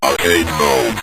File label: arcade Mode, Synth Voice; Kenney's Sound Pack